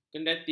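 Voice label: "kendati", Malay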